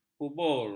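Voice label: "kubur", Malay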